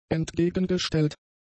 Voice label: "entgegengestellt", German; adjectives

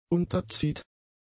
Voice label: "unterzieht", German; adjectives

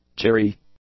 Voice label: "cheery", English; adjectives

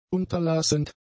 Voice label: "unterlassend", German; adjectives

